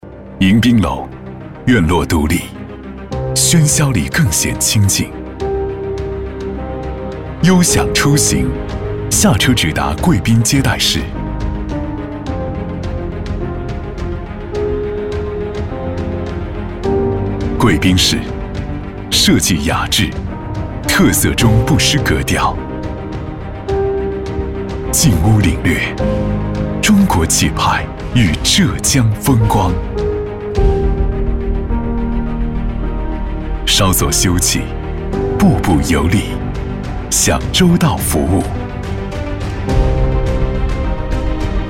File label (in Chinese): 男; 浦发私人旅行顾问